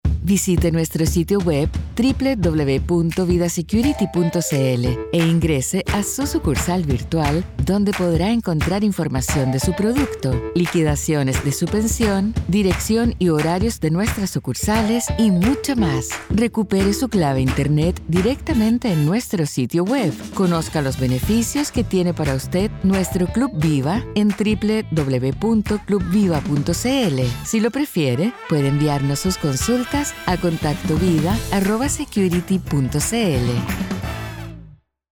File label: IVR Cisco; VIDA SECURITY